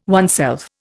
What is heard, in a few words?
"oneself", Indian